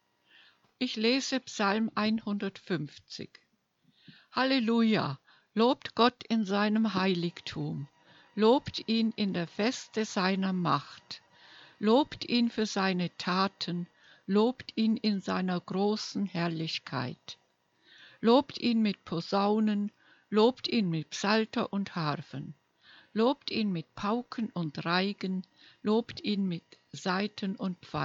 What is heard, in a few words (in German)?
Lesung Ps. 150